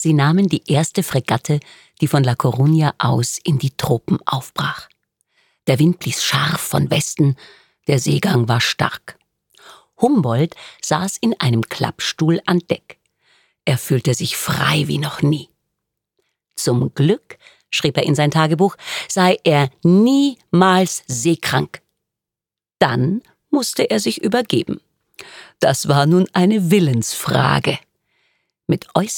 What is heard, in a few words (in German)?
Hoerbuch